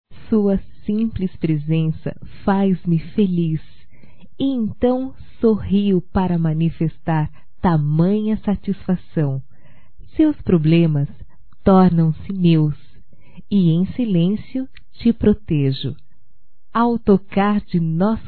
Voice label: AMO VOCÊ - F, Românticas fem

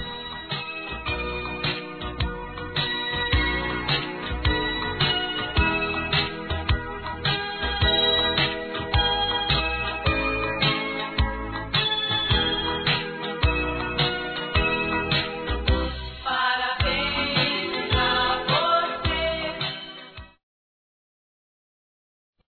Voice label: Aniv. Irmão Mais Velho Masculino; Telemensagens 2017; Aniversários; Aniversário Infantil

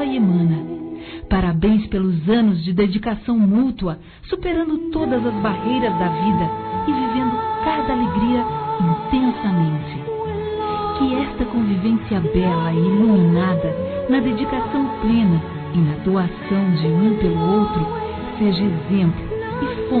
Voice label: Casamento Geral Feminino; Aniversários; Aniversários diversos

